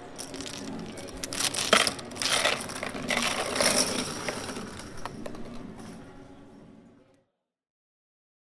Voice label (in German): hoehrspiel der croupier